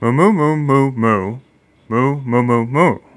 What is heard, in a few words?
neutral